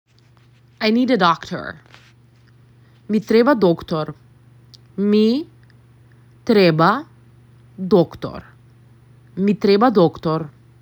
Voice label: I need a Doctor, Macedonian; the talking macedonian phrasebook